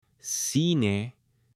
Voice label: "cine", H; LUGARES; AppLenguaje

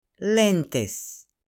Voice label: "Lentes", M; ROPA; AppLenguaje

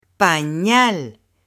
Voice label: "Panal", M; ROPA; AppLenguaje

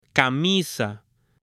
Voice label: "Camisa", H; ROPA; AppLenguaje

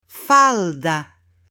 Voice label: "Falda", M; ROPA; AppLenguaje

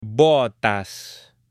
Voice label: "Botas", H; ROPA; AppLenguaje

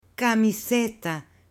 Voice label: "Camiseta", M; ROPA; AppLenguaje

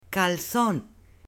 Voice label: "Calzon", M; ROPA; AppLenguaje

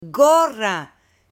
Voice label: "Gorra", M; ROPA; AppLenguaje

